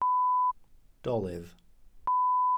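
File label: "dolydd", Welsh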